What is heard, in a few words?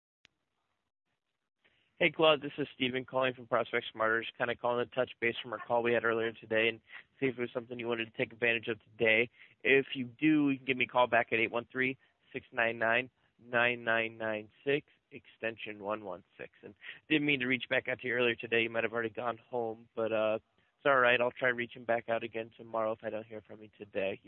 Voice Mail; Skylook